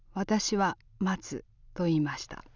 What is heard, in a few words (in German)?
"matsu" tanne, japanese accent